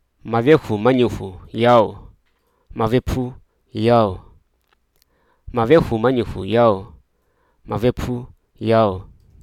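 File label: Hruso Aka; dither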